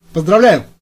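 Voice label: congratulation, Scottish Gaelic; gcompris-data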